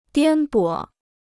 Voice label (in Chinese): "颠簸", Chinese